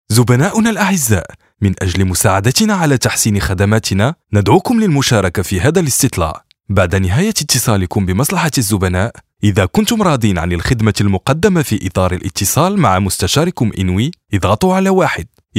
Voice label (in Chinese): 阿拉伯语男 干音 电话应答